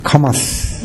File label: "kamasu", minamiyamagata